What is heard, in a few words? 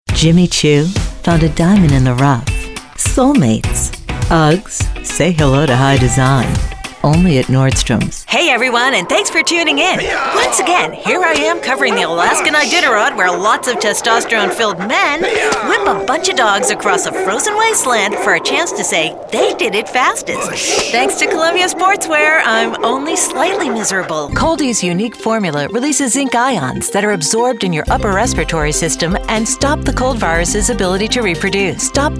commercial